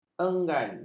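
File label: "enggan", Malay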